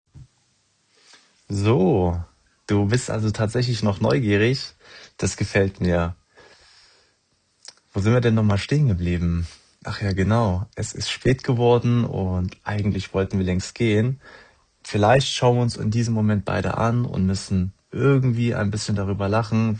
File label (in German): Escort Mann Audiovorstellung deutsch